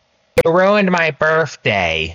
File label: The punchline (child)